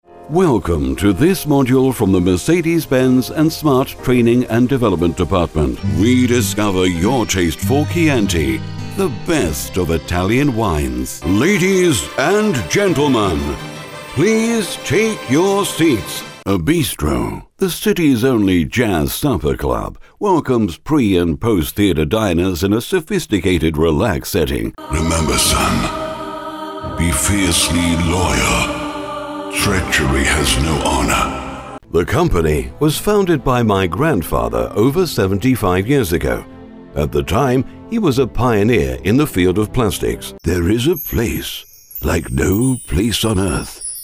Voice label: UK Voiceover; SHOWREEL COMPILATION